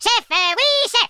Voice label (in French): yes sir, French speech